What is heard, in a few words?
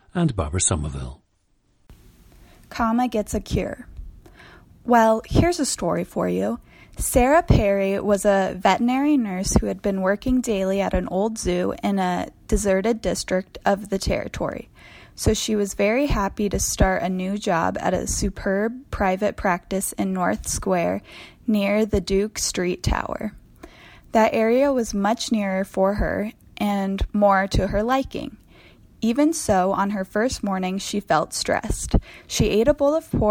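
iowa, International Dialects of English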